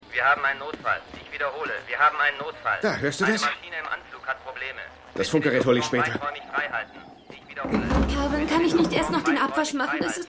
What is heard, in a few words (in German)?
Radiosprecher; Eve Und Der Letzte Gentleman